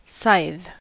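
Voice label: "scythe" English, US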